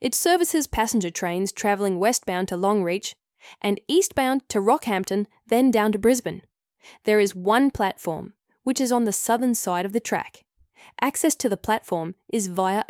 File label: Station map audio description